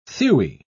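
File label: "thewy", British English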